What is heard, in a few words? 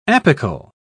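"apical", British English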